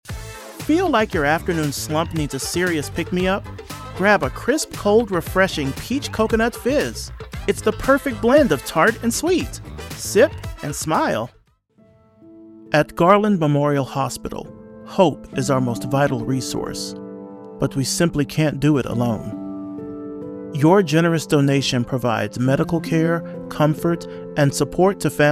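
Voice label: voiceover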